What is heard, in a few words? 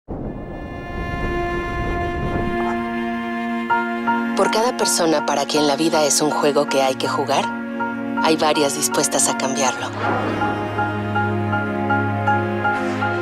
YOUNG NATURAL NEUTRAL SPANISH SMILEY